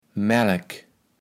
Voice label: "Mälk", kaevels platt

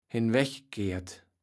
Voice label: "henweggekährt", kaevels platt

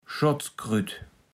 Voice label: "Schottskrütt", platt

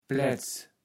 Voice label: "Pläts", kaevels platt

